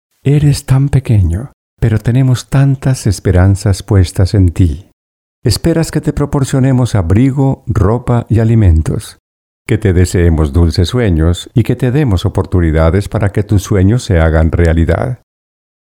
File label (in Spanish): spanish manifest